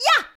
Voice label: shonen5 kougeki

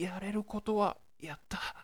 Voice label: shonen yarerukotowayatta

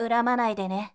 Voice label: shonen uramanaidene

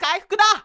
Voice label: shonen kaihukuda

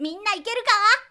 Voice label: shonen minnaikeruka

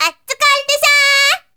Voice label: syozyo otukaresan

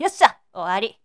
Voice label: shonen yosshaowari

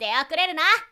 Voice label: shonen deokureruna

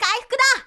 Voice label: shonen kaihukuda

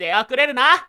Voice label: shonen deokureruna

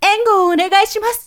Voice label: zyosei engowoonegaisimasu

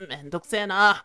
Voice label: shonen mendokusena

